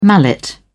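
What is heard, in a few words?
"mallet", English, uk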